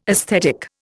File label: "esthetic", Indian